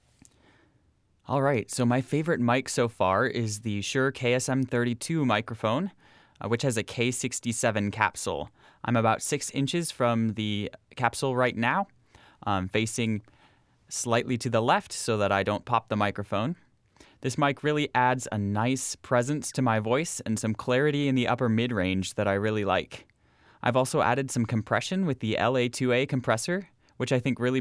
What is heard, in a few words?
Voiceover; Favorite Mic and Setup